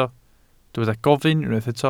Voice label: "gofyn", Welsh